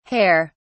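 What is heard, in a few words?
"hare(s)", British English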